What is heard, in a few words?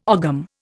"ogham", Indian